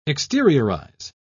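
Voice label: "exteriorize", British English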